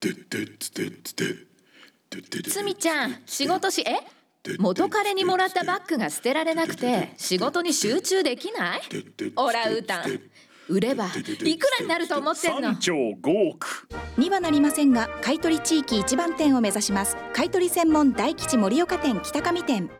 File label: radio